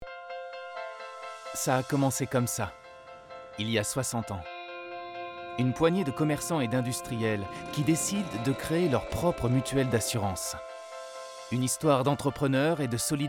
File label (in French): Institutionnel; Macif